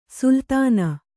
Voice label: "ಸುಲ್ತಾನ್", Kannada

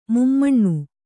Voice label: "ಮುಮ್ಮನ", Kannada

